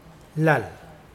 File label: language, Mokilese